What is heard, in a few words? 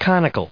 "conical", us